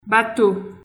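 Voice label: "bateau", Haitian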